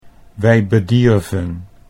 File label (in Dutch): "wij bedierven", Dutch